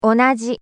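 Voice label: "onaji", Japanese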